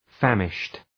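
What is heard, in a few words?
"famished", English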